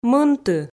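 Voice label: "мынты", Komi, female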